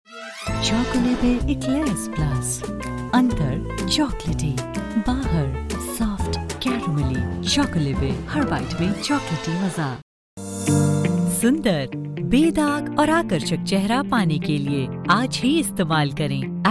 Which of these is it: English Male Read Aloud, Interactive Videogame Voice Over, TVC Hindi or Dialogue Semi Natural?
TVC Hindi